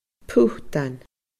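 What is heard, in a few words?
"putan", Scottish Gaelic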